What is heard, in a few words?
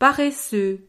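"paresseux", French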